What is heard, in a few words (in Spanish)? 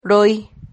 "roy", Silabas